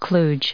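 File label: "kludge", English, us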